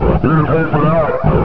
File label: man down, combine soldier, far; hl2